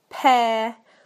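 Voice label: "pair", British English; PictureDictionary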